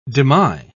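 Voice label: "demy", British English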